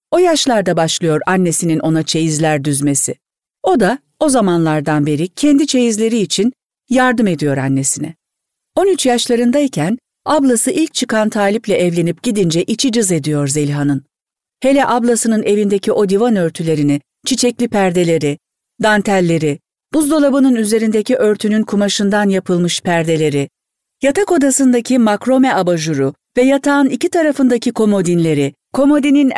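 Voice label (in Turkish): KITAP, Seslendirme; Sesli Kitap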